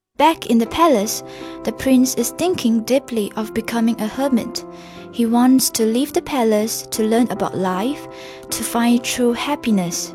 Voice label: Narration